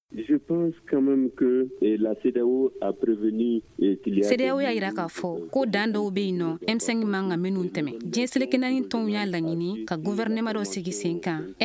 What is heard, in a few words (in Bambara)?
magazine bamanankan